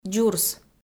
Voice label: "дзурс", Komi

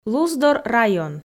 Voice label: "луздор район", f

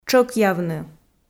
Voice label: "тшӧкъявны", Komi

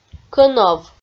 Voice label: "кӧнов" Komi

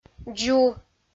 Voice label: "дзу", Komi

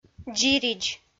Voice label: "дзиридз", Komi

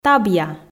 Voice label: "табъя", Komi

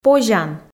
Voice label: "позян", Komi

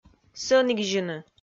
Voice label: "сӧнікзьыны", Komi

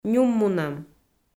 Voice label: "нюммунӧм", Komi

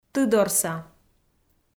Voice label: "тыдорса", Komi

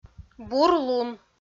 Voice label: "бур лун" kpv f

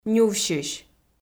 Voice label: "нювсьысь", Komi

